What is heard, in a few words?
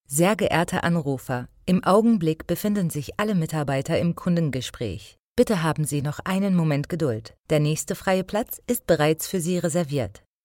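German IVR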